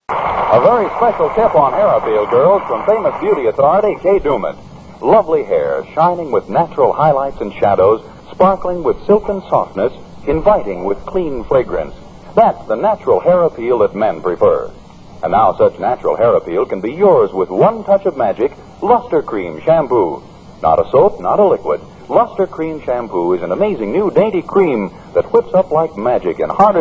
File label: Lustre Creme Commercial